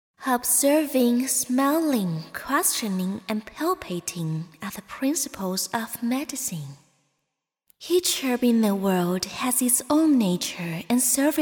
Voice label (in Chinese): 英语; 铁扇公主